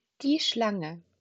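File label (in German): "Schlange", German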